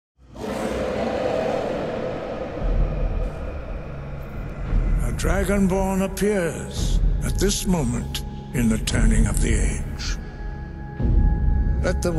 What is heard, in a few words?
dj